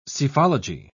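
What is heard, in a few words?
"psephology", British English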